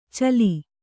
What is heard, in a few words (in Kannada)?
"ಛಲಿ", Kannada